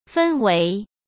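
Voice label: "分为", Mandarin Chinese; hsk; chinese flashcards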